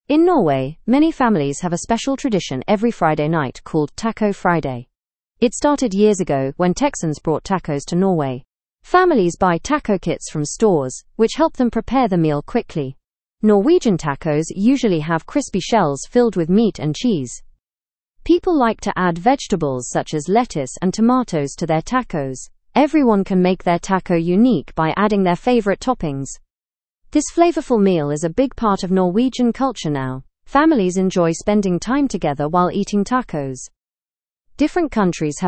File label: english through news lower intermediate fast; norway s love for tacos